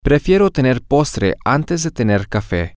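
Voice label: I prefer to have dessert before having coffee, Spanish, female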